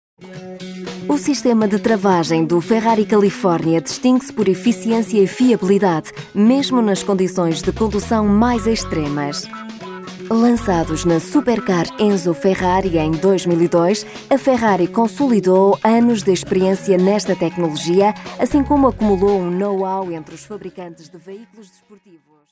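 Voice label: Portuguese female, Portoghese; ferrari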